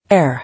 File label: "Heir", English